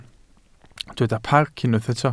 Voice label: "parc", Welsh